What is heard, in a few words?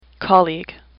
"colleague", English; AWL